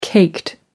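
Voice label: "caked", English, British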